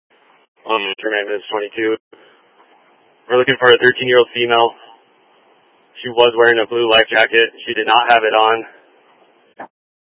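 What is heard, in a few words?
Incident Tac 1, Scanner audio recording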